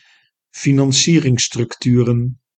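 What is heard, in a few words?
"financieringsstructuren", Dutch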